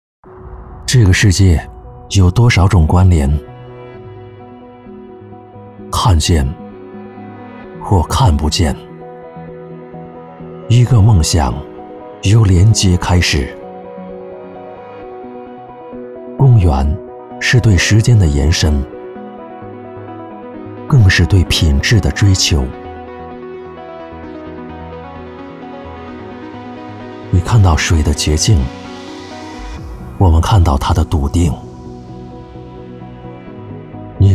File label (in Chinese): 广告质感走心; 不止所见